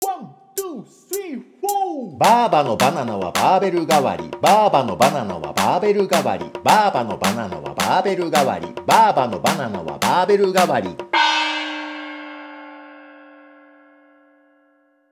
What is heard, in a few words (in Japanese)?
早口言葉リズム（中級編）